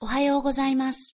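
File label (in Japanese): "おはようございます" Japanese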